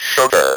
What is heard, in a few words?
"sugar", Speak & Spell